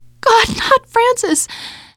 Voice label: grief biker, teengirl, voice; player; survivor